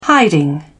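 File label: "hiding", English, British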